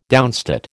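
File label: "downstate", American English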